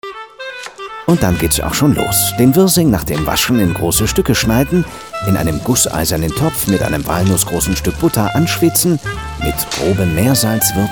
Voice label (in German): kommentar